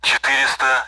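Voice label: Speech Sound Russian Common AWACS Numbers; DCS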